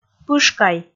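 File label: "пышкай", Komi, female; kpv audio dict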